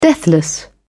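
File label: "deathless", British English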